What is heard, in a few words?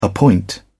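"appoint", British English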